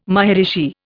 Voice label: "maharishi", Indian